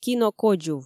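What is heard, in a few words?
"кинокодзув", Komi, female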